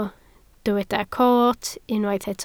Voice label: "coot", Welsh